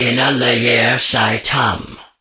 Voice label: alien taunt confirm